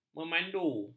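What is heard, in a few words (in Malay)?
"memandu", Malay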